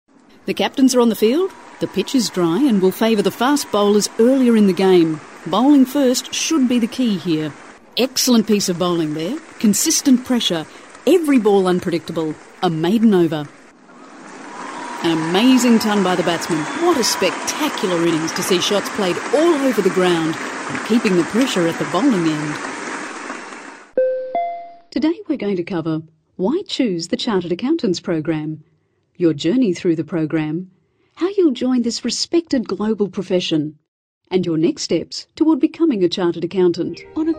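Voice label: English British female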